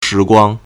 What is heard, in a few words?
"shi2guang1", Chinese